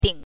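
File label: "ding", Mandarin Chinese, neutral tone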